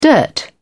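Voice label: "dirt", British English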